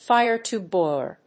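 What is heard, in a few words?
"fire-tube boiler", English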